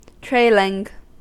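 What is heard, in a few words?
"trailing", US English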